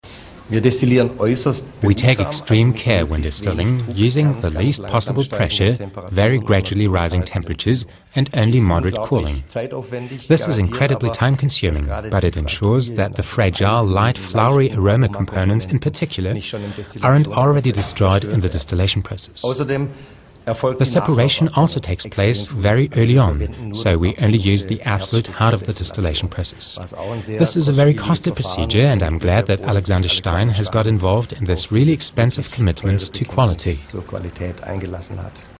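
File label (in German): British English, Kommentar, Neutral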